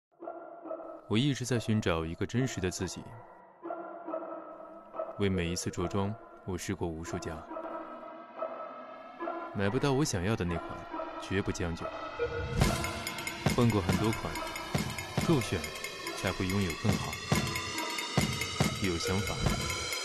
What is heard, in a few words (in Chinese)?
男 宣传片 跑酷; 厦门EF跑酷